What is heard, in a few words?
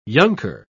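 "younker", British English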